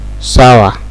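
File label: "sour", Nigerian Pidgin, male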